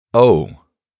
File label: "oh", man; voice ringtones